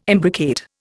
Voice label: "imbricate", Indian